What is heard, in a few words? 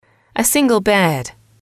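"single bed", English, female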